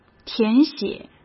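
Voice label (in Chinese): "填写", Mandarin; chinese flashcards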